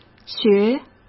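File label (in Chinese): "学", Mandarin; chinese flashcards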